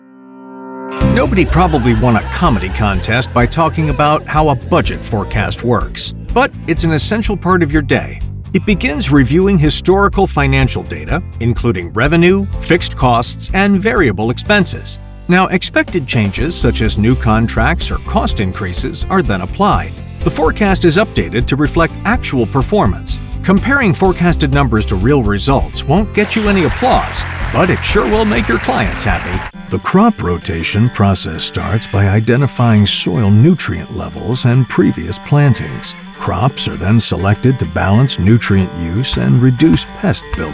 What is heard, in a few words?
Explainer